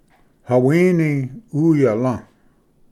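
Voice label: "hawini uyalv", Cherokee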